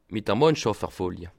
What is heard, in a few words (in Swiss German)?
"mannschaft", Alsace